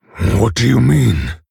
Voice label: Male Orc Ogre